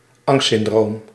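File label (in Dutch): "angstsyndroom", Dutch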